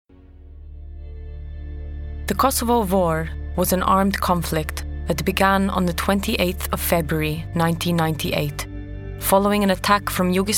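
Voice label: Albanian English, female, narration; accent of albania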